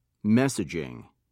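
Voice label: "messaging", British English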